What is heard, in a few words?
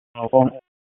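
Public Safety Tactical, p25; wake p25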